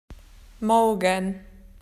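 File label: "tomorrow", Australian English; PictureDictionary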